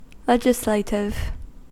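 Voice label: "legislative", US English; pronunciations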